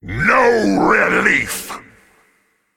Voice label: Male Ancient Attack